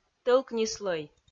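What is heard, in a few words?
"тӧлк ни слӧй", Komi, female; audio dict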